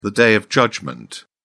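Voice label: "day of judgment", English, British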